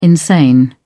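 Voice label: "insane", British English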